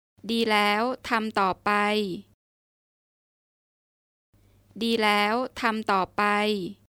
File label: Keep up the good work, Thai